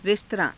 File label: "res_taraa~", Hindi